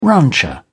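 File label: "rancher", British English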